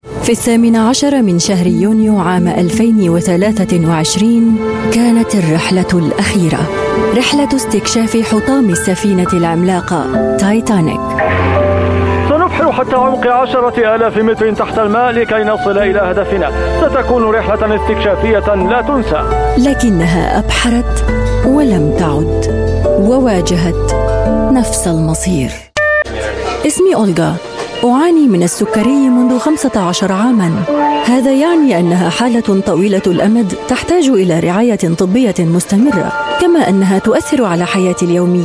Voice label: arabic voice over female documentary

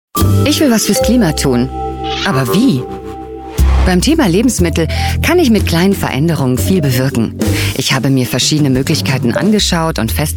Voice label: german voice over female narrator

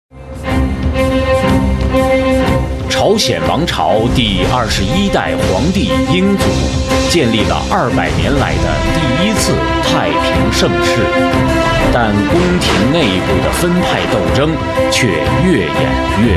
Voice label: chinese voice over male commercial

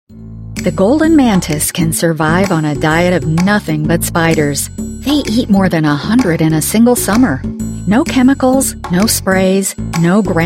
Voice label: english american voice over female narrator

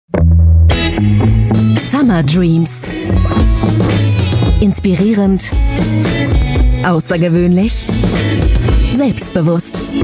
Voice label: german voice over female commercial

